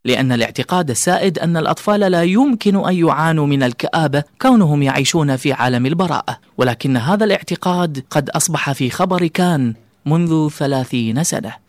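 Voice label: Arabic, narration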